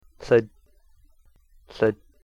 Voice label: "lhit", smoke, Cahto